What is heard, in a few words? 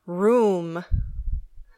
"room", English